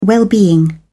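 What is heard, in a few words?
"well-being", British English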